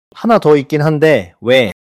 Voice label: neutral